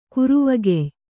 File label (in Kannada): "ಕರುವಗೆಯ್", Kannada